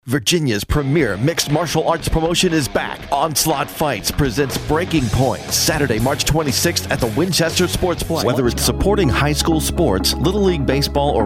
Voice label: Spot Mix

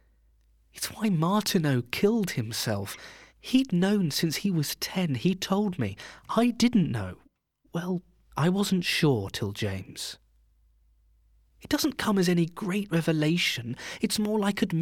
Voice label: Another Country Teenager

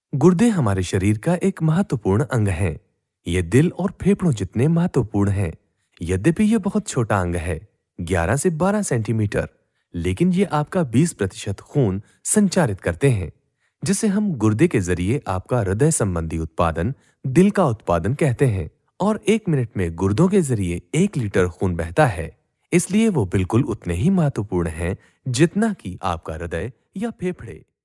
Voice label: Hindi sample

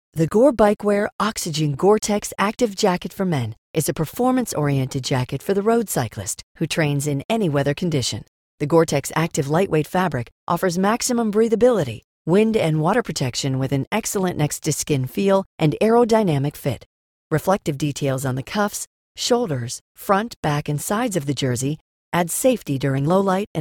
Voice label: Gore Female

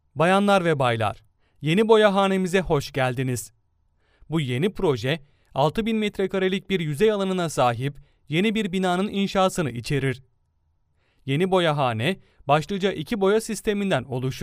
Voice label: e-learning Turkish

